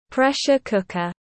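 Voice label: "pressure-cooker", English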